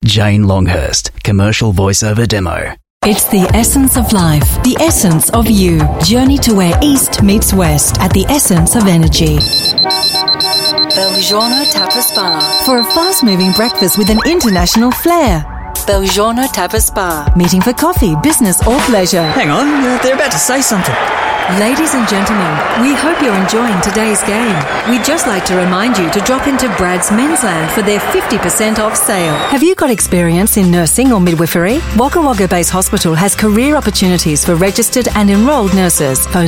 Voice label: AU English Advertising F